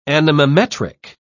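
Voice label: "anemometric", British English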